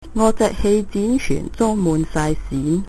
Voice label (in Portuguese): hovercraft cantones